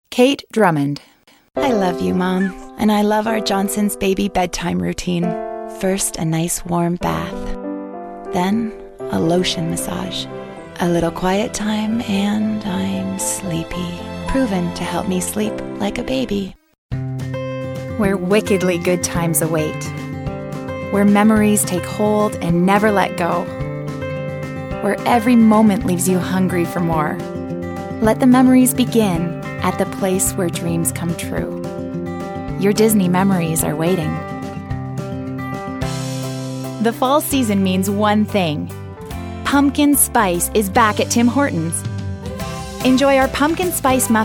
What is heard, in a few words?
Voice Demo